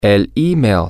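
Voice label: the email, Spanish, male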